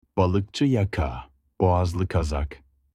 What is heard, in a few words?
roll neck in turkish